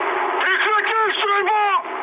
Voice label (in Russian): player friendly fire, mood high, speech ru